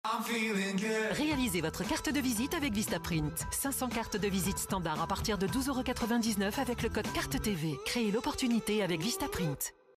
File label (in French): pub TV, voix off feminine france; Vistaprint